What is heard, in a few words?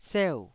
"seu4", taiwanese Syllables